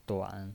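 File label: "duan", Mandarin Chinese, third tone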